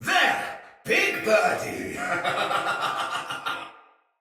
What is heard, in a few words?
See Player Shout, Battle; Barks; Thawtide Hunter